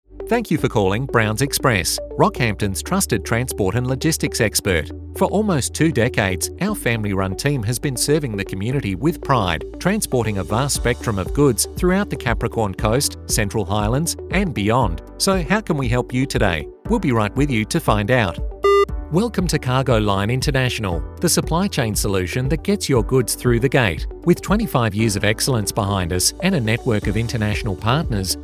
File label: English Australian IVR